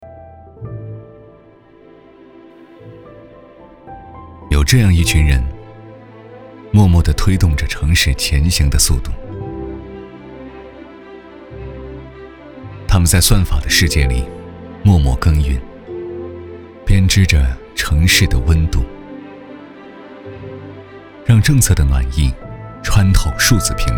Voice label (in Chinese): 男 宣传片; 与城市共生-创数智-未来